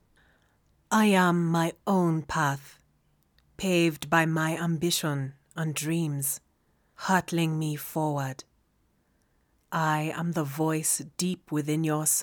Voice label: English East African Accent Intimate